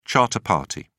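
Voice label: "charter party", British English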